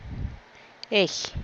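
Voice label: empathetic or annoyed answer to a question, Teotitlán del Valle Zapotec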